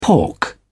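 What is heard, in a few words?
"pork", British English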